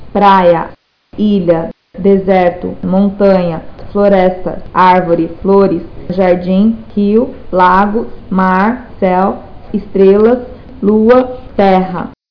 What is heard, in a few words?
brazilian